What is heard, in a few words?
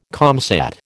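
"comsat", American English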